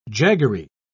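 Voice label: "jaggery", British English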